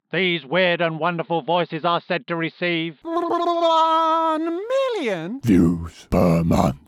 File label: 60s radio star; Roger Rabbit; Venom; Genuine British Received pronunciation, cockney and Scottish